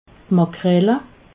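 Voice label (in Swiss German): "makrele", Alsatian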